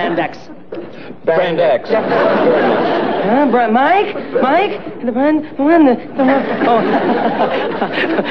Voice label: Promos Rice Krispies Commercial